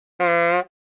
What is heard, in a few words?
comedy accent; NCH Library